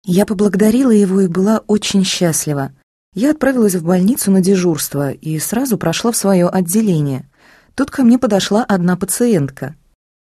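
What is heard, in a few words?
Russian, female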